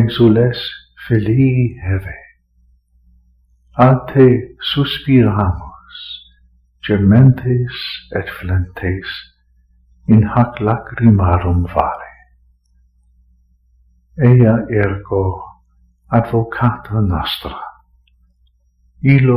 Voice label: Salve Regina Hail Holy Queen LATIN FULL